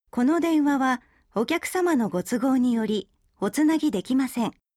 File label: reject msg, guidance; Kyocera BASIO4 KYV47